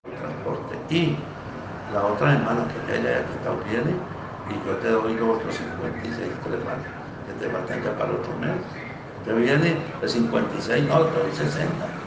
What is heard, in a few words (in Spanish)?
conversacion